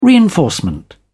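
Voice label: "reinforcement", British English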